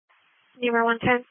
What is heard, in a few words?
Police Patrol 1 (Dispatch)